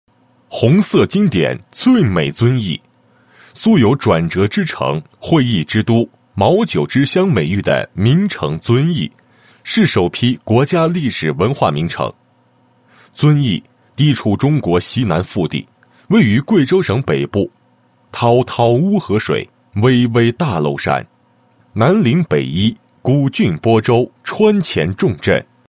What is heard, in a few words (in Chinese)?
男 学校 职业技术学院宣传简介, 配音案例